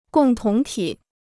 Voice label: "共同体", Chinese